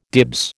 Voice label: "dibs", American